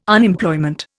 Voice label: "Unemployment", Indian

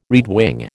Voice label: "redwing", American

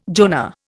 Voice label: "Jonah", Indian

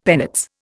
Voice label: "penates", Indian; Dictionary

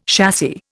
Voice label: "chassis", Indian

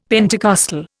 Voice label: "Pentecostal", Indian; Dictionary

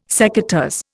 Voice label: "secateurs", Indian

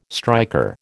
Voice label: "striker", American

